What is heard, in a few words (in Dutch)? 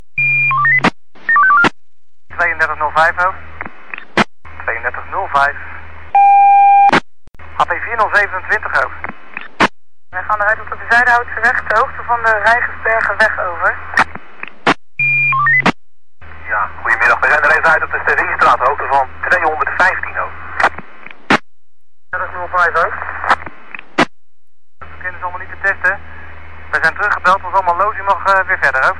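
Politie Scanner NL, police scanner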